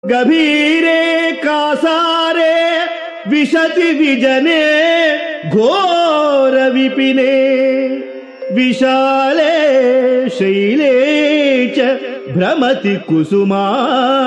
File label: Sanskrit; Sri Adi Sankaracharyas Sivananda Lahari; Adi Shankaracharya; श्रीशिवानन्दलहरी ०९